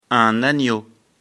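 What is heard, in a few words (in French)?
"agneau", French; afrikalan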